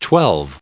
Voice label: "twelve" en-us recite

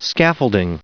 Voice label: "scaffolding", en-us

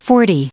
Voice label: "forty", en-us

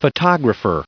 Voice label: "photographer", en-us

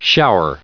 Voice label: "shower", en-us

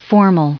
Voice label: "formal", en-us

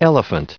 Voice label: "elephant", en-us, recite

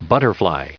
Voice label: "butterfly", en-us

